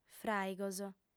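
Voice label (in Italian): "fràigos", lingua sarda, female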